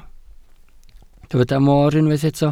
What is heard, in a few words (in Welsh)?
"moor", Welsh